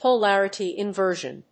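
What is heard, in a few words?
"polarity inversion", English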